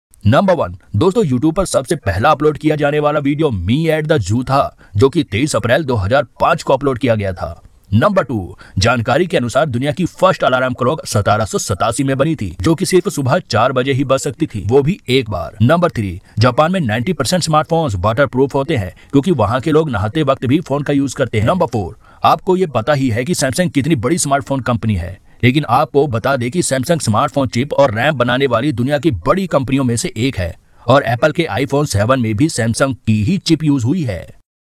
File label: best hindi short facts